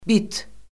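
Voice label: "bit", Azerbaijani; Orfoqrafiya Lüğəti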